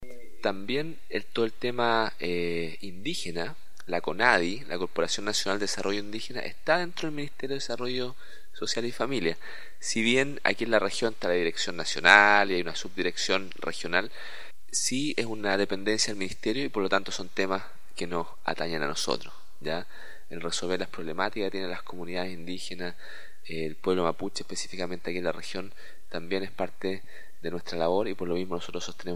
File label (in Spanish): Cuña